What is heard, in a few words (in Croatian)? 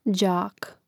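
"đak", Hrvatski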